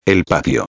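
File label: "el patio", Spanish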